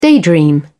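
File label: "daydream", English, British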